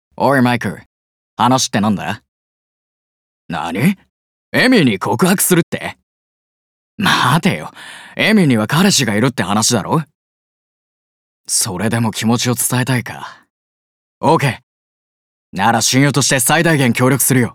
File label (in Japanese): 友人思いの青年